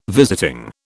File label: "visiting", British